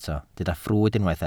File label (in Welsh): "ffrwd" Welsh